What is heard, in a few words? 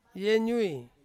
"yenyui" play, Ansus